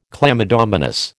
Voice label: "chlamydomonas", American

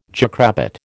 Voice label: "jackrabbit", American

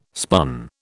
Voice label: "spun", British

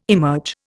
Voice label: "emerge", Indian

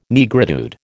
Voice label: "Negritude", American

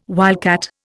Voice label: "wildcat", Indian

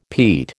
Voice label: "peat", American

